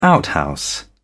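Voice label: "outhouse", British English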